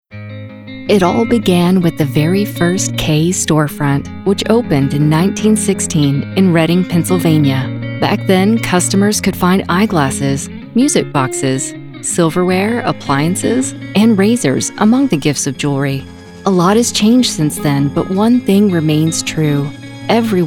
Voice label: Corporate; KAY JEWELERS